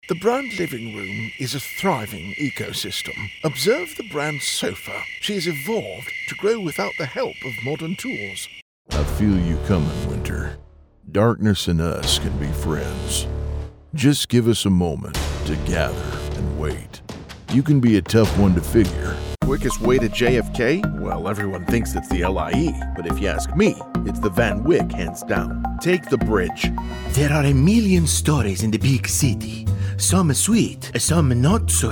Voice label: Accents, Male Voice